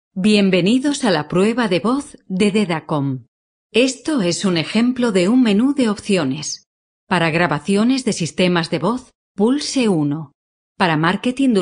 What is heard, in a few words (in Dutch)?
Voice over Spaans Europees vrouw